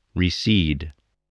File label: "recede", english